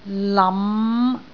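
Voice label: "lam5", Cantonese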